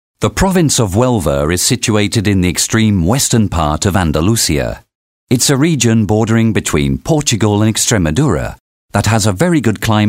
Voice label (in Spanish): Corporativo